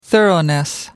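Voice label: "thoroughness", British English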